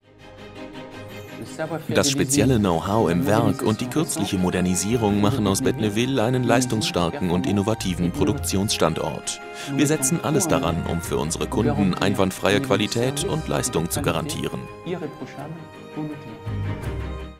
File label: voiceover; mondi